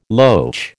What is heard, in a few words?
"loach", American